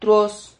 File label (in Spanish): "truos", Silabas